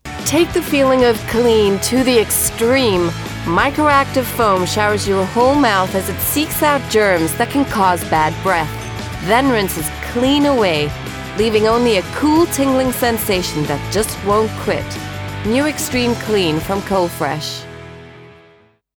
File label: American Spot